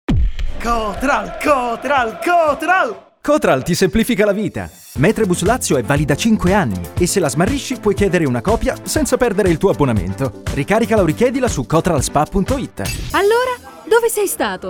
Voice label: Commercial Radio